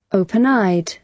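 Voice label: "open-eyed", British English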